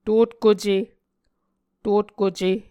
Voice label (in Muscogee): "totkuce", Muscogee